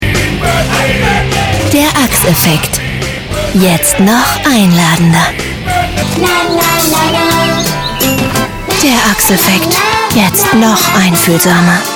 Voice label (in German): tv spots; drei axe effekte